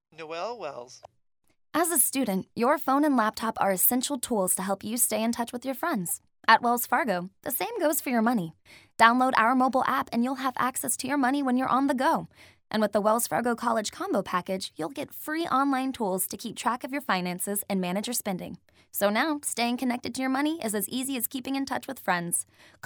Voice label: commercial